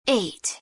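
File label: "eight", English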